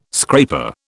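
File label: "scraper", British English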